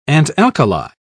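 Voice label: "antalkali", British English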